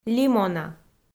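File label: "лимона", Komi, female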